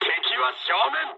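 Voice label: Japanese enemy detected mood med; War Thunder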